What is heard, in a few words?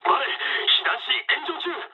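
on fire mood low, speech japanese; War Thunder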